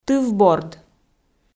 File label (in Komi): "тыв борд", Komi